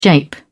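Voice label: "jape", British English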